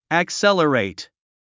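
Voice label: "accelerate", English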